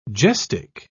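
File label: "gestic", British English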